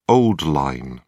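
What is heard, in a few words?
"old-line", British English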